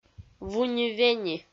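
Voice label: "вуни-вени", Komi, female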